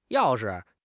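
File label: "yao4 shi5", Mandarin Chinese; HSK4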